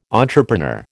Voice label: "entrepreneur", American